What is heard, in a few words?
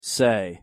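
"say", English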